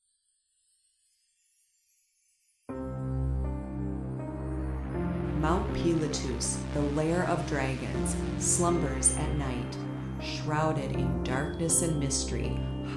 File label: full w voiceover; pilatus